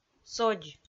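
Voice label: "содз", Komi, female; kpv; audio dict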